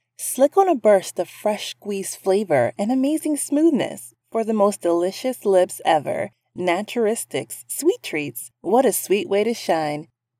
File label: COMMERCIAL, Professional Female Voice Over Talent